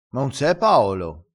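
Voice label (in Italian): "picchia", dialettale, crespinese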